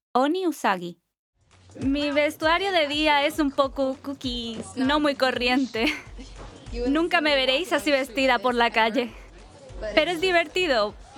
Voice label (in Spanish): Documental